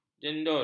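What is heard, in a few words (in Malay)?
"jendul", Malay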